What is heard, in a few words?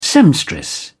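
"seamstress", British English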